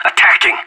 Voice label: in combat, player vo anarchist